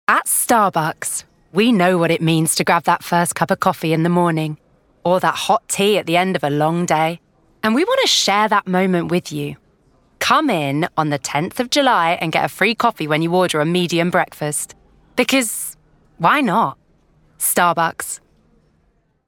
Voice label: Ad; Starbucks

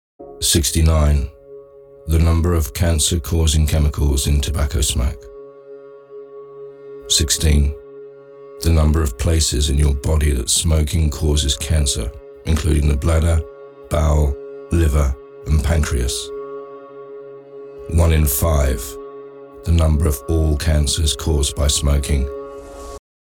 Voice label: commercial

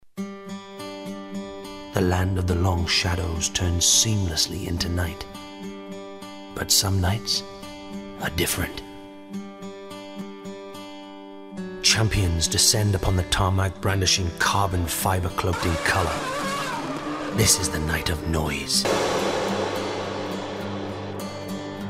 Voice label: voiceover; F1

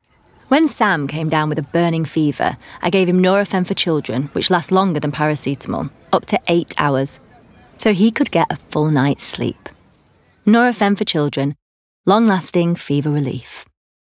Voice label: ad; Nurofen

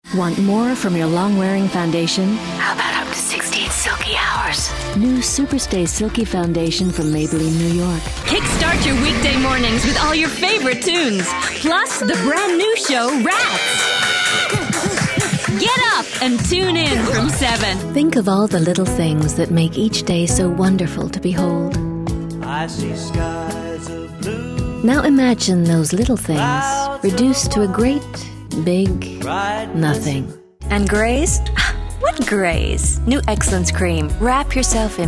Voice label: US commercial

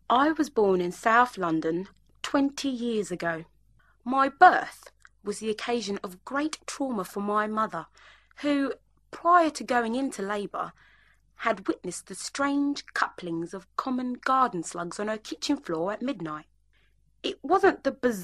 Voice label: Talking in Tongues; AUDIOBOOK

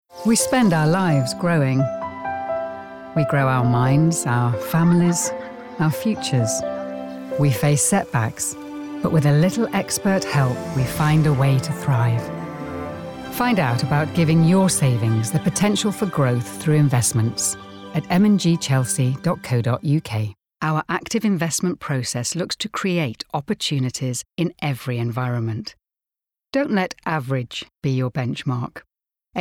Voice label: commercial

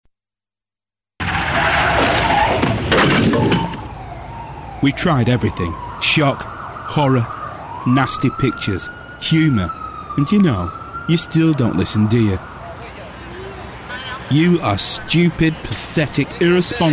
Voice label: commercial reel

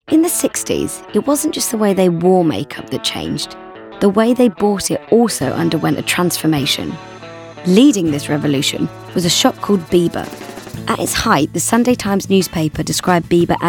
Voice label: Narration

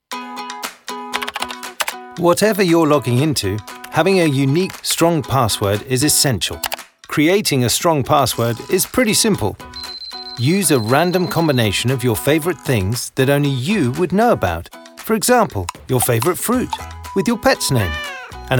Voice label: Passwords Commercial

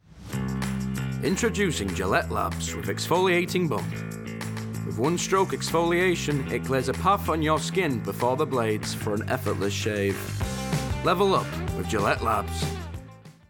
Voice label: Ad; Gilette

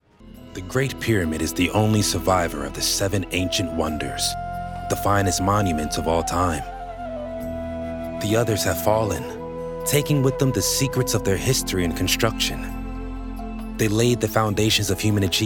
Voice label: Documentary; The Great Pyramids

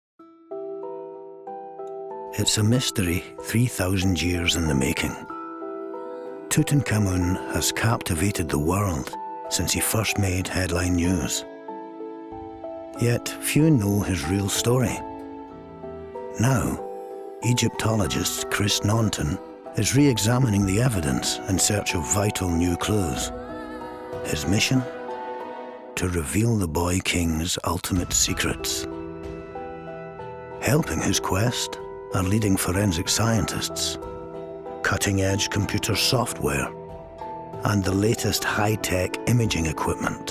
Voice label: narrative

